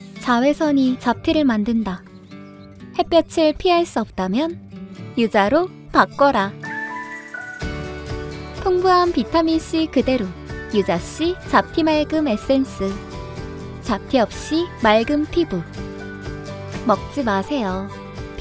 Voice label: 광고 자연스럽고 산뜻한

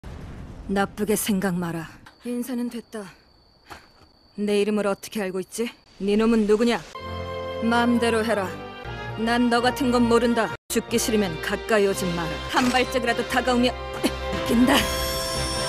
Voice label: 중성적인 30대; 반요야샤히메; 세츠나

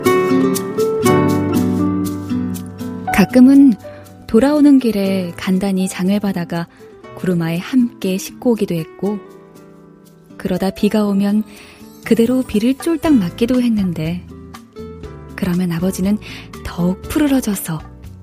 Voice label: narration 따뜻한 느낌

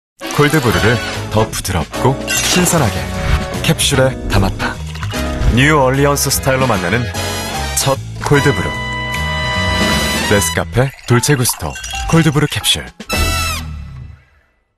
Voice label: 광고; TVC; 네스카페 돌체구스토

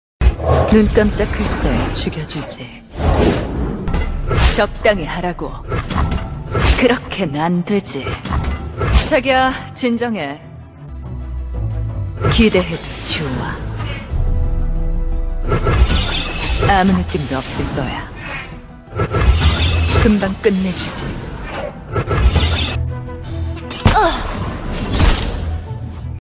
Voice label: 24세 섹시하고 단호한 암살자 배회하는 자객 시크한; LOR 배회하는 자객

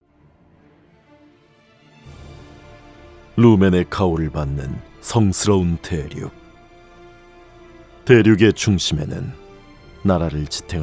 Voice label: 게임 인트로 장엄한 굵은 판타지 광고

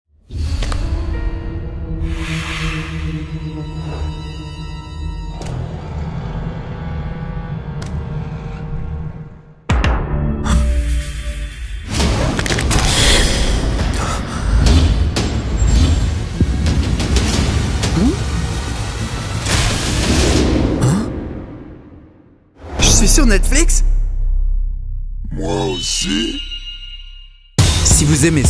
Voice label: 프랑스 남; Sweet-Home-Special-PV

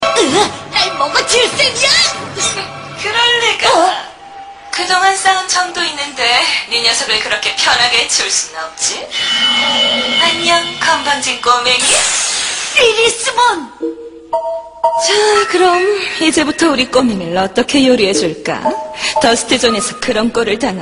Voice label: 섹시하고 광기어린 악녀 30대; 디지몬크로스워즈; 리리스몬

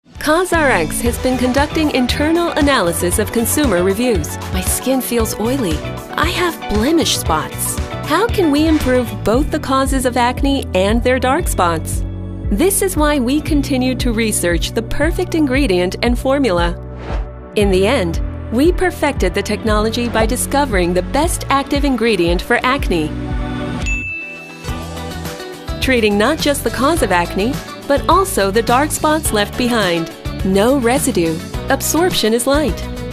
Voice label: 영어 여 기본 톤; COSRX-Mild-to-severe-breakout-treatments

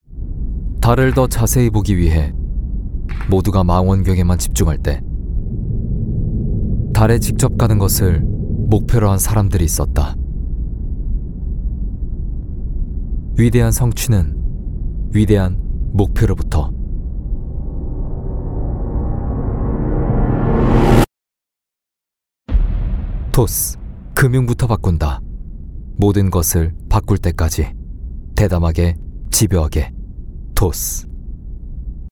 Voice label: 광고 무게감 진지한; 토스뱅크

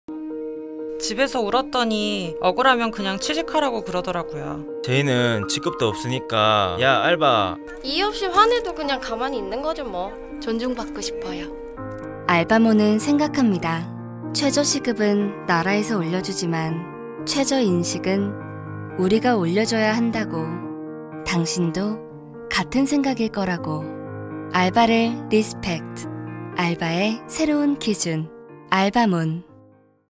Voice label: 라디오광고 성우 20대 따뜻한 차분한; 알바몬 알바의 새로운 기준 라디오광고 영상

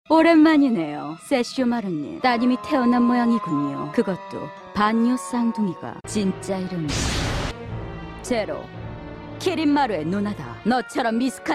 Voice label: 매혹적 냉혹한 미녀 요괴

